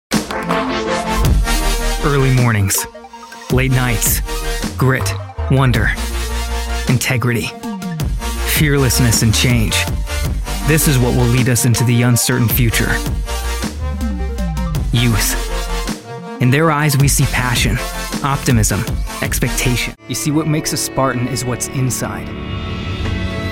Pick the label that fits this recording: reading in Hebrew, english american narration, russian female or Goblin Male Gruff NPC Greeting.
english american narration